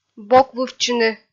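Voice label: "бокывывтчыны", Komi-Zyrian, female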